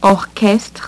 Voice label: "orchestre", French; HyperSound™2

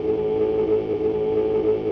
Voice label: voice boy, ethno, 125

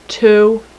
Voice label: "2", female; NUMBERS

